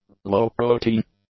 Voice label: "low-protein", English; adjectives